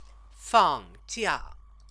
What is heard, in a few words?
"fangjia", Chinese